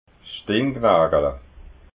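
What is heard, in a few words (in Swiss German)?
"stinknagala", Alsatian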